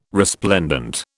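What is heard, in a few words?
"resplendent", British English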